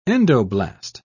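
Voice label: "endoblast", British English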